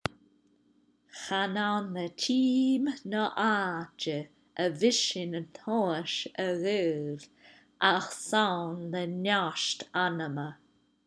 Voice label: Gaelic phrase